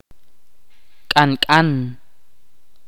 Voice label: "amarillo" in Ch'orti'